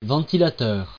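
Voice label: "ventilateur", French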